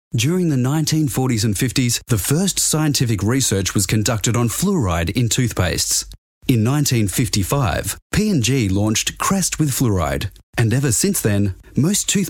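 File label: English Australian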